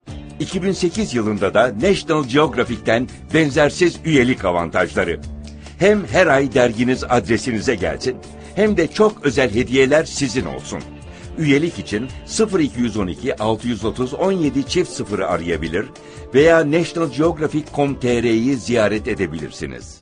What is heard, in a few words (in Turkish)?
kampanya, seslendirme